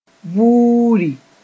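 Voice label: "vuuri", Mossi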